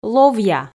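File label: "ловъя", Komi, female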